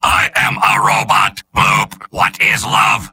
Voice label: soldier robot; mvm